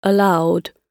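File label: "allowed", British English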